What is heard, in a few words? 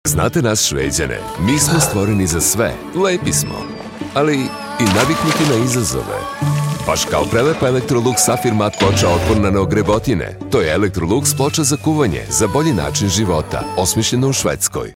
TV, serbian